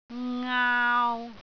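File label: "ngaau3", Cantonese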